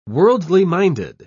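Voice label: "worldly-minded", British English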